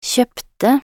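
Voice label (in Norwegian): "kjøpte", Norwegian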